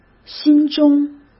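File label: "心中", Mandarin Chinese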